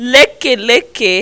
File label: "lekeelekee", Yoruba; CONSONANTS; labalaba-lekeelekee-ile